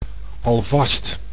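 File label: "alvast", Dutch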